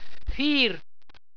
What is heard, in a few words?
"fir", Walser